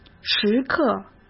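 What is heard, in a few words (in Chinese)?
"时刻", Mandarin Chinese; chinese-flashcards; hsk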